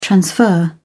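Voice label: "transfer", British English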